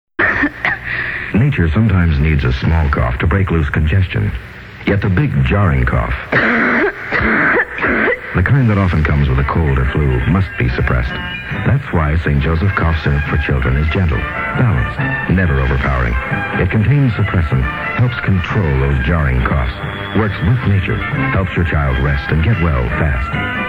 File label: spot; 1970 fall; st joseph cough syrup for children